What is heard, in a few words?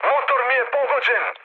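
Serbian damaged engine mood med, speech; War Thunder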